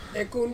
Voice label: "kong", Ansus; to squeeze